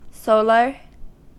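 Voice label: "solar", US English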